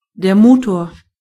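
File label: "engine", German; gcompris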